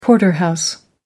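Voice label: "porterhouse", British English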